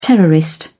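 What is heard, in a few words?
"terrorist", English, British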